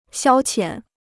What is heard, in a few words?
"消遣", Chinese